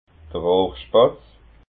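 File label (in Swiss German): "rohrspatz", Alsatian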